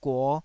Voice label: "guo5", taiwanese